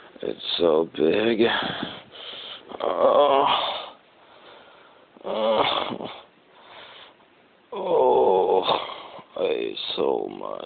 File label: my belly is so big, MALE SPOKEN WORD